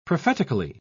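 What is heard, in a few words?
"prophetically", British English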